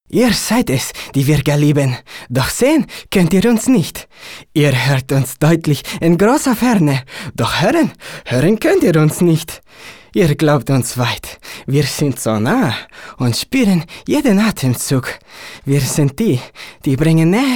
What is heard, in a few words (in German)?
spanischer Akzent, maenner